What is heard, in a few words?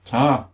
"thanks", English